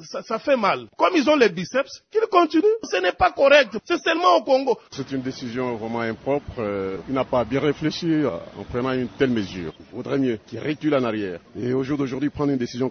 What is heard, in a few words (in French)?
vox pop passeport